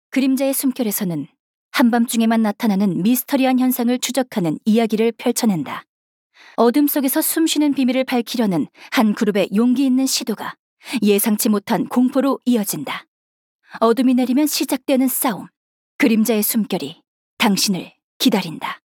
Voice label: KOREAN FEMALE